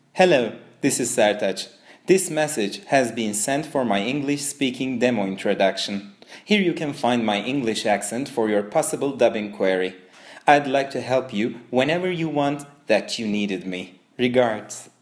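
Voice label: Turkish English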